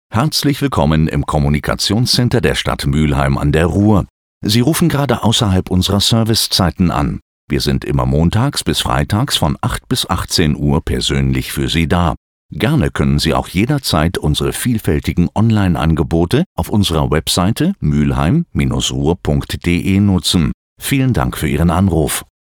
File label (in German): Telefonschleife; STADT MUEHLHEIM